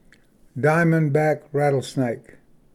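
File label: "diamondback rattlesnake", English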